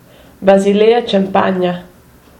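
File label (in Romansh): "Basilea Champagna", Romansh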